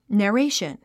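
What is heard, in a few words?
"narration", English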